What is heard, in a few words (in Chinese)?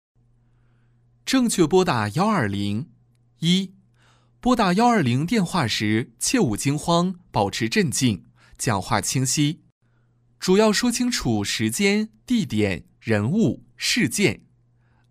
男 科普解说 年轻解说; 正确拨打120; 年轻活力; 年轻时尚